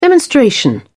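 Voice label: "demonstration", British English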